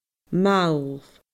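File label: "mall", Scottish Gaelic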